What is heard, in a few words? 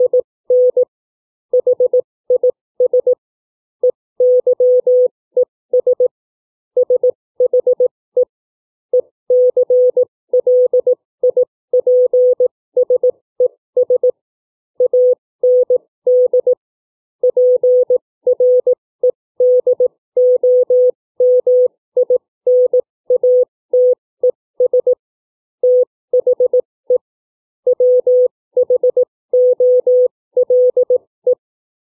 book reading